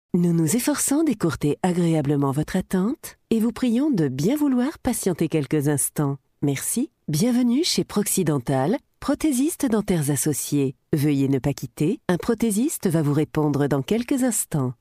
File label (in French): TELEPHONIE